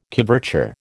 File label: "coverture", American